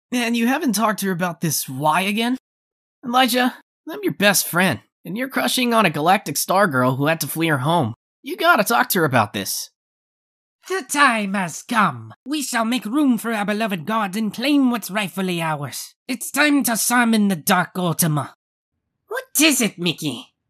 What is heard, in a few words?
Anime voice audio